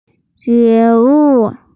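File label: "jue wu", Mandarin Chinese, female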